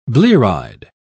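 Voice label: "blear-eyed", British English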